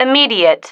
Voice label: "immediate", female